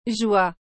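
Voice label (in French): "joie", French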